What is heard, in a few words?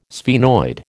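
"sphenoid", American English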